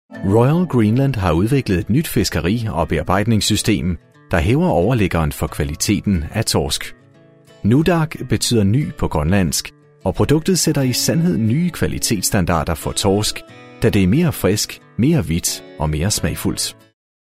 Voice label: danish male corporate warm